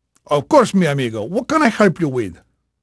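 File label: Spanish male, voice; Pirates Of The Caribbean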